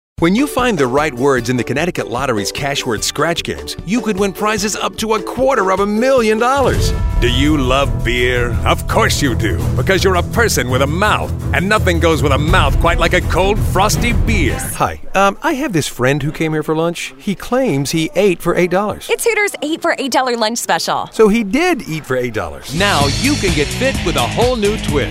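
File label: Commercial, real people male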